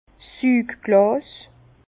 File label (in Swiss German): "sugglas", Bas Rhin; vin; pipette